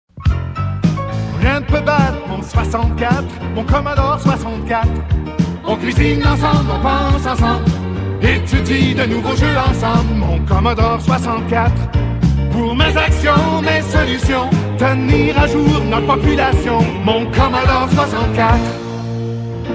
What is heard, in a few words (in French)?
C64 French